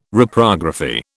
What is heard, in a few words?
"Reprography", British